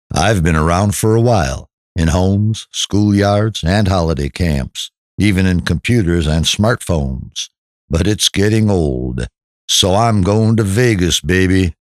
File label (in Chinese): 外籍男 Deep Voicing 娱乐项目广告; 美语